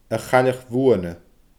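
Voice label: "a chailleach bhuana", Scottish Gaelic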